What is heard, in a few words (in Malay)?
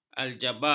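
"aljabar", Malay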